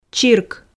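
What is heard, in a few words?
"чирк", Komi, female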